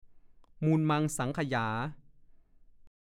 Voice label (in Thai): "มูลมังสังขยา", ภาษาโคราช; ดูคำว่า มูลมัง